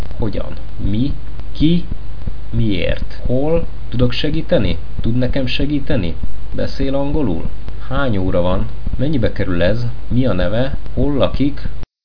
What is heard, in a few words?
hungarian questions